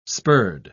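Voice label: "spurred", English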